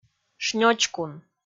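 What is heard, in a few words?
"шнёчкун", Komi, female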